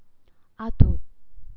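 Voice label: "alto", VNM, female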